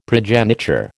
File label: "progeniture", American